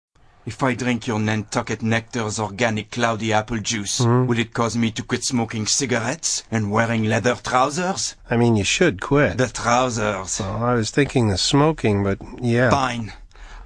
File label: english